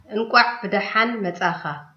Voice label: welcome, tigrinya